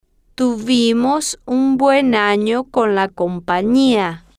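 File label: tuvimos un buen ano con la compania, Spanish, female, slow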